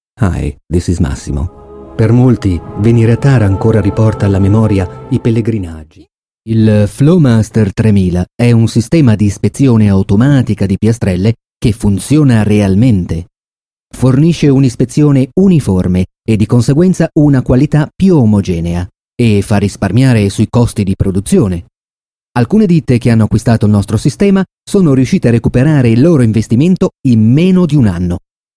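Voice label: Italian, male, corporate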